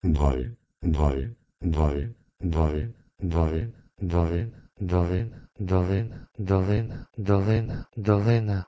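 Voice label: Proto-Indo-European dhol to Ukrainian Dolyna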